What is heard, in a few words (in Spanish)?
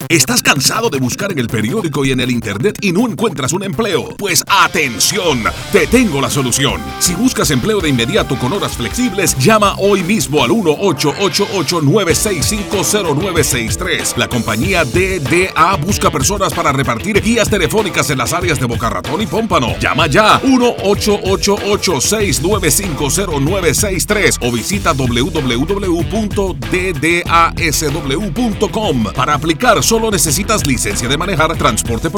Span; Boca Raton Pompano